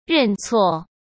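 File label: "认错", Mandarin Chinese; chinese-flashcards; hsk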